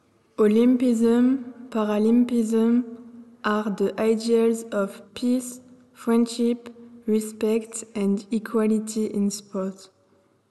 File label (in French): Anglais; La flamme linguistique est arrivée au lycée Simon Lazard ce jeudi 25 janvier 2024